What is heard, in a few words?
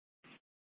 Fire Dispatch; C- Chandler